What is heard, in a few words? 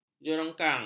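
"jerongkong", Malay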